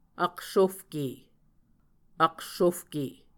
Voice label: "aksofke", muscogee